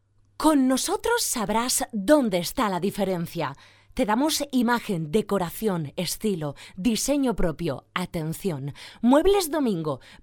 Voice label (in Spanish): locutora